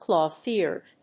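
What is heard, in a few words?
"cloth-eared", English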